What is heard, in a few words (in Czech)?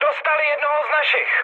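Czech aircraft destroyed, mood medium; War Thunder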